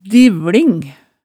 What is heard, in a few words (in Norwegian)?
"divvling", hemsedalsmaalet tale